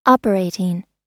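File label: "operating", American English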